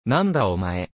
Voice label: anime